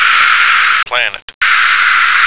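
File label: "planet", American English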